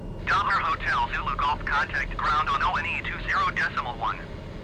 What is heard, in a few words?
Radio, atc speech frequencies